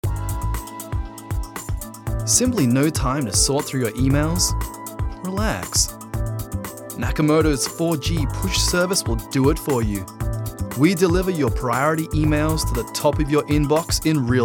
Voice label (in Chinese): 英語男聲 Computer